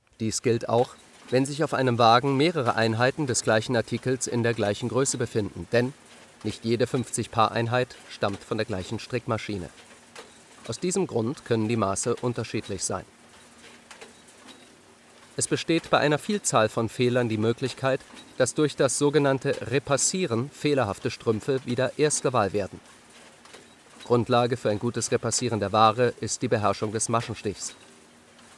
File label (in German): Sprecher Industrietext